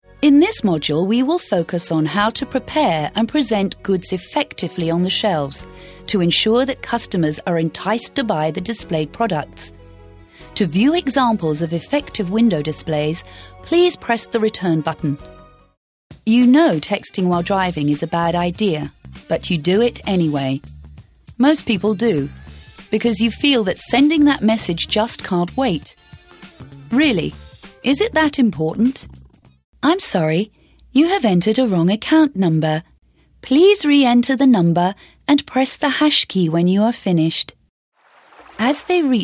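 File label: British English, narration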